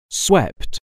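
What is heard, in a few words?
"swept", English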